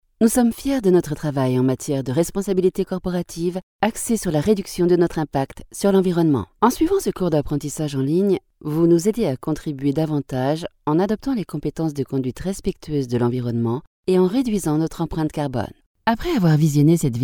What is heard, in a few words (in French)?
Elearning Medley, voix off femme